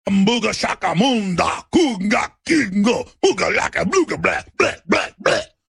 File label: speaking gibberish; audio meme